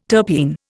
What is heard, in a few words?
"terpene", Indian